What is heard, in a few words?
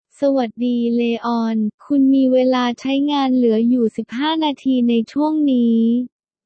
speech sample th